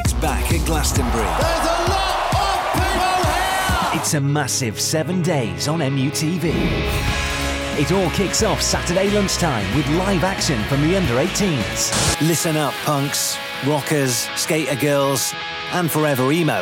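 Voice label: promo, Youthful Voice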